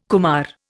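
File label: "kumara", Indian English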